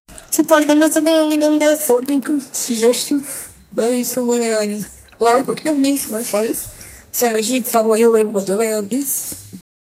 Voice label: welcome to italy, travel